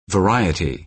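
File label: "variety", American English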